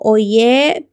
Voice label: "cinco", Kanjobal; Números; INALI

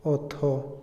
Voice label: "o", Otomí, hombre; INALI

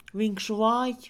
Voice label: pestaña, Mixe, Mujer; Cuerpo; INALI

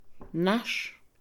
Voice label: "suelo", Mixe, Mujer; INALI

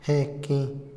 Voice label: "claro", Otomí, hombre; Percepción; INALI

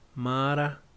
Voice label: hija de hombre, Yaqui; Vocabulary

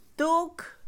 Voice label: uno, Mixe, Mujer; Números; INALI

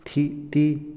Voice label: abanico, Otomí, hombre; INALI

